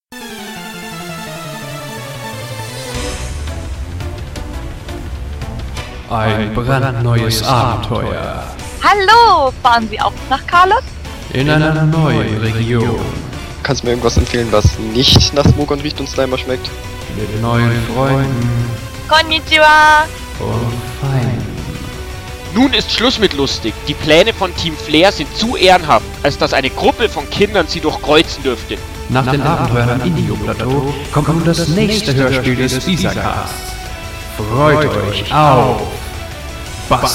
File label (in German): Hoerspiel Trailer; Hoerspiel Kalos